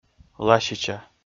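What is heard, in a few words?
"ласича", Komi, male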